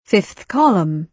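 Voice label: "fifth column", British English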